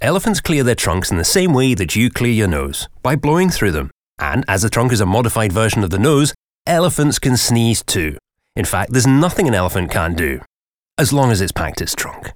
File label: male english scottish audiobook narration